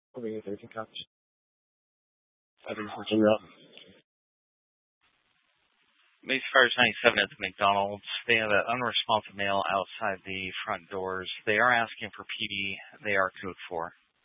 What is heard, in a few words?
Mesa PD Dispatch